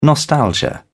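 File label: "nostalgia", British English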